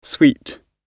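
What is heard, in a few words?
"sweet" British English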